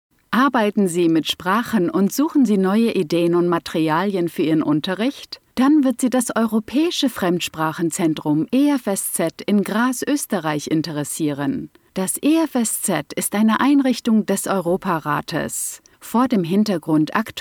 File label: german female